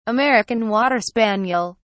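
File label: "american water spaniel", English, British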